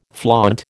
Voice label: "flaunt", American